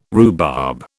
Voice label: "rhubarb", British